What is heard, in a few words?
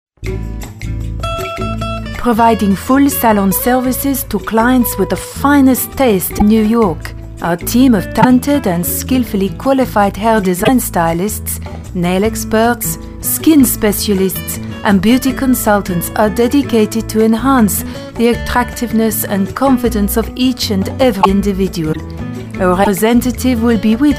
french, onhold message